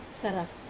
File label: "sarak", bracelet, wamesa